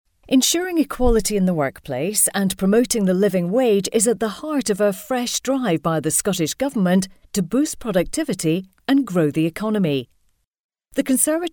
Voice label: news

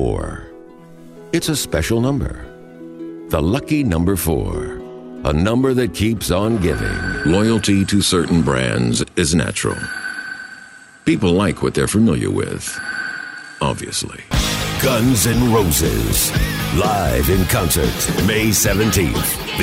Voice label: deep american, house minute

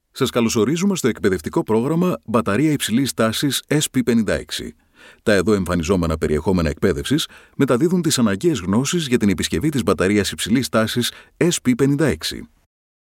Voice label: greek voice technical training

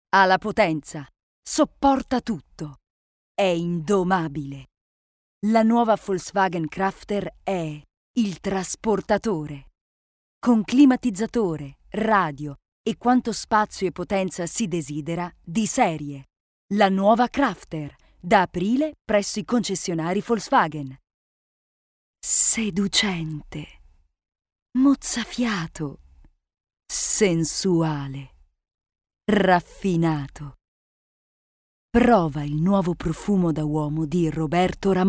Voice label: italian female commercial

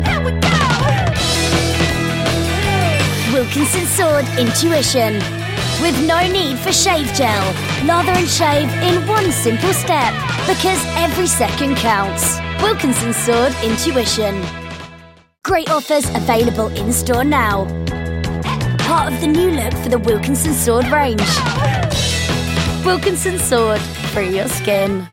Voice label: ads confident; wilkinson sword

